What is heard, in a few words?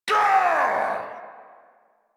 angry, en; Warframe; Tyl Regor Boss; Efforts